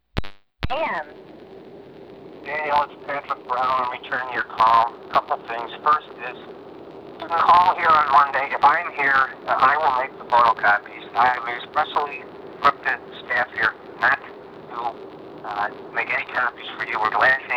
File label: Voicemail